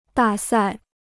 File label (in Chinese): "大赛", Chinese